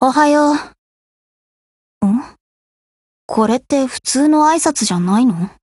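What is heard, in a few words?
HELLO Japanese; HKCAWS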